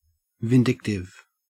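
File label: "vindictive", Australian English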